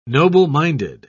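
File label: "noble-minded", British English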